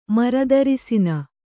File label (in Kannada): "ಮರದರಸಿನ", kannada